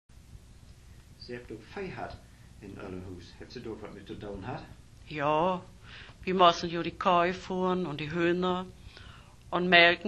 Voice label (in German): Nordniedersaechsisch, Niederdeutsch